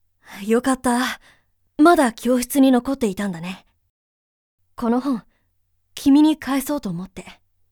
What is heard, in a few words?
boy